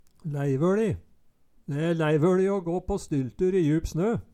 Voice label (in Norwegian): leivoLi, Numedalsmål